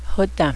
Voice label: dog older, cheyenne language